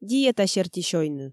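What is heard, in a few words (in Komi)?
"диета серти сёйны", Komi, female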